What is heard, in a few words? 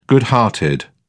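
"good hearted", British English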